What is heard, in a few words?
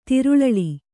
"ತಿರುಳಳಿ", Kannada